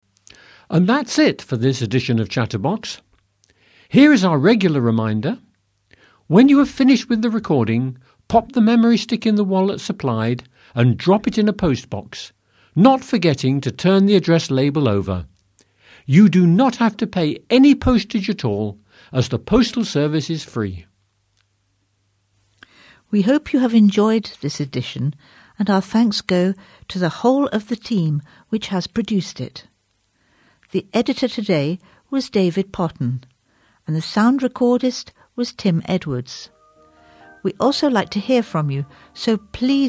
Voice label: Closing Announcement